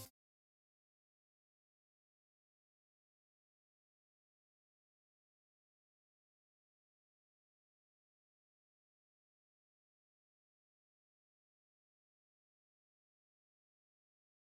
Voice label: Tech Liner Spanish